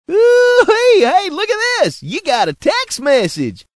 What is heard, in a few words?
redneck text message